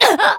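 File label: damaged, Japanese; isuka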